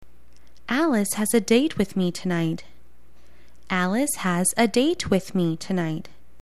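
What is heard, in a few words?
"tonight", English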